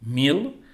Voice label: "mil", es